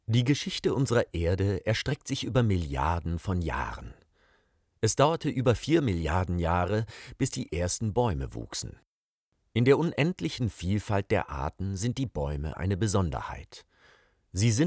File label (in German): Doku Text